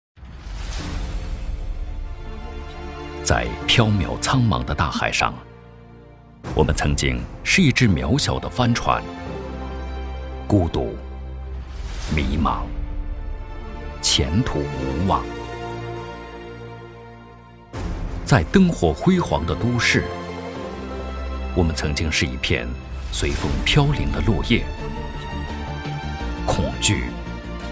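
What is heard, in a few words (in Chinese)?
男国大音-企业宣传片; 四川瑞景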